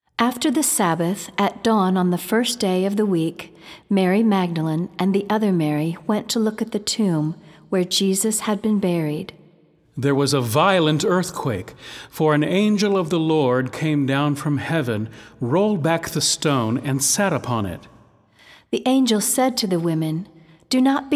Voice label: Narration